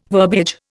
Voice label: "verbiage", Indian